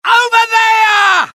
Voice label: alert, dialogue UK; mohaa